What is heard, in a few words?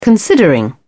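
"considering", English, British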